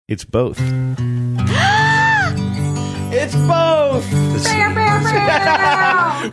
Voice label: saying its both making airhorn sounds